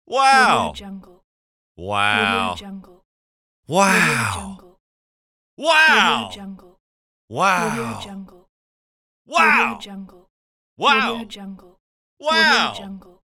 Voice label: male saying wow pack